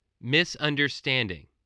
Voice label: "misunderstanding", voice, spelling